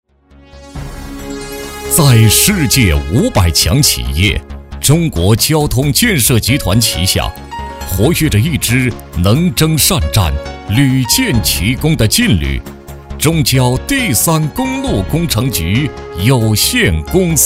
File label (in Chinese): 国语男声配音 大气 浑厚; 企业-中交三公局